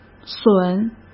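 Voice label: "损" Mandarin Chinese; chinese flashcards; hsk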